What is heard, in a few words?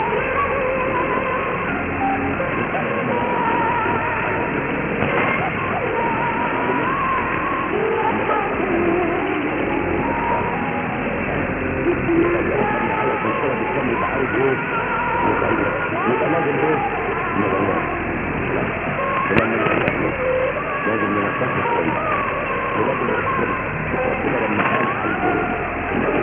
arabic